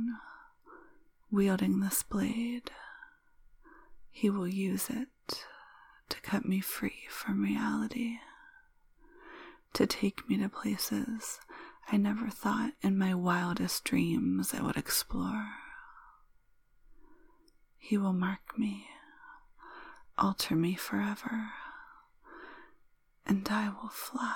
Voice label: Dark secrets, Erotic poetry, Sharing dark fantasies, Anticipated fulfillment, F4M